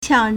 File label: "qiang4", Chinese